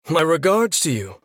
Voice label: male human thanks

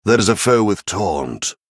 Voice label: male taunt; venthyr error

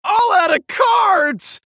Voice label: male human no cards

